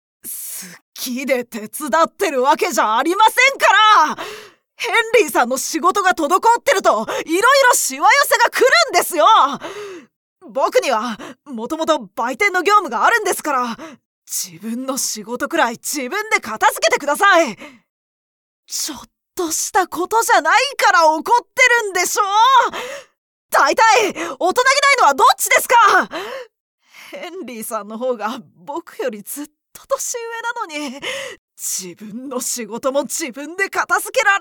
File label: angry